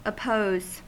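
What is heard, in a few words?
"oppose", US English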